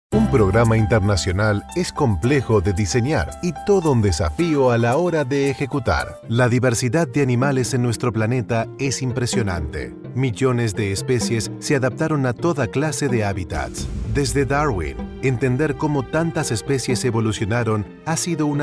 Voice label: spanish male narration